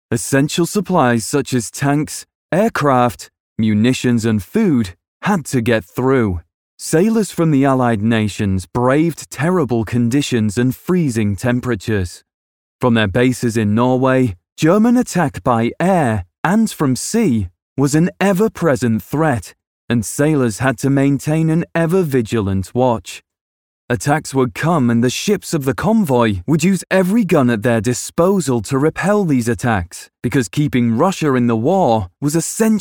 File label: english british documentaries